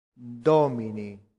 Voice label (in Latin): "domini", latin; santillana